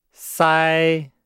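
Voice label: "sai", Chinese; Nihao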